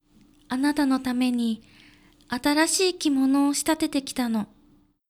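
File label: female; クール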